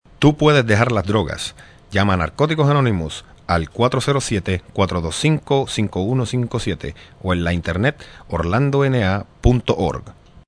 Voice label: Male Spanish